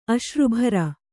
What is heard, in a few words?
"ಅಶ್ರುಭರ", Kannada